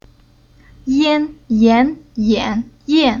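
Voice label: "Yan", chinese syllable; Уроки фонетического блока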